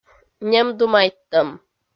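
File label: "нем думайттӧм", Komi-Zyrian, female; kpv audio dict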